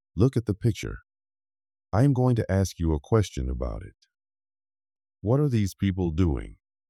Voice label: Speaking Practice; academic language development